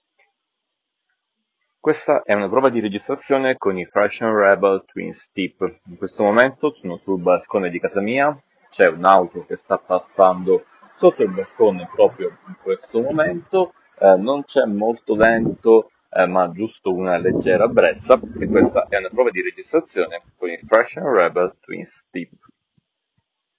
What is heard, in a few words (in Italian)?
Test registrazione Fresh n rebel Twins Tip